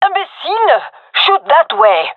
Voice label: taking fire friendly, gendermerie fem; vo

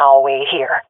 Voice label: waiting here, swat fem; vo; Counter Strike Model Pack; CS:GO

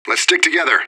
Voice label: radio bot regroup; fbihrt

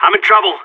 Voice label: radio taking fire, seal, player vo; Counter Strike; CS:GO

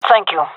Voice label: thankful, gendarmerie fem; vo

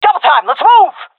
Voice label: request move, seal fem; vo; Counter Strike Model Pack; CS:GO

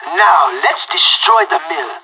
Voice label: radio lock n load; Counter Strike Model Pack; CS:GO

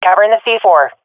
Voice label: at loosebomb, swat fem; vo; Counter Strike Model Pack; CS:GO

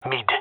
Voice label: loc mid, gendarmerie male; vo

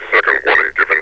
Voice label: second warning, metropolice vo; Half Life Alyx

